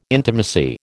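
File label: "intimacy", American English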